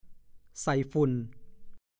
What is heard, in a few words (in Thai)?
"ใส่ฝุ่น", ภาษาโคราช